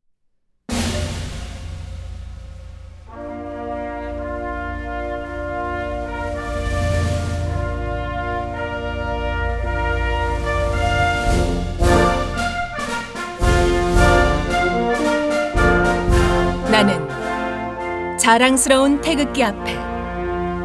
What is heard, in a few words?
adult woman